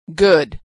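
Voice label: "good", American English; vowel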